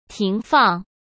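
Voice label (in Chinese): "停放", Mandarin Chinese; chinese flashcards; hsk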